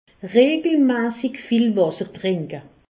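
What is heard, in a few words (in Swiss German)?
"wasser", Alsatian; medecine; boire régulièrement beaucoup deau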